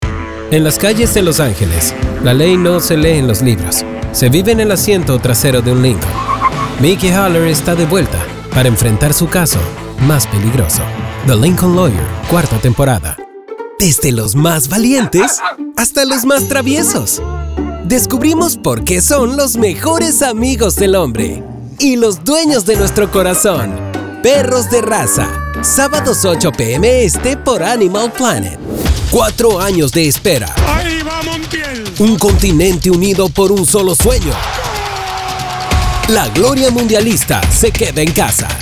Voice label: spanish tv promo